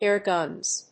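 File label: "air guns", English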